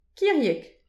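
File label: "kiriek", Breton; petit precis de prononciation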